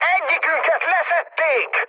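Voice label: Hungarian aircraft destroyed mood high, speech; War Thunder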